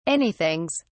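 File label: "anythings", British English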